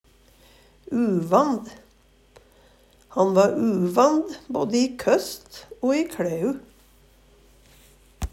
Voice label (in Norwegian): uvand, Numedalsmål; Han va uvand både i køst o i kLæu.; Adjektiv